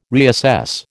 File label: "reassess", American